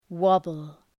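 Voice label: "wobble", English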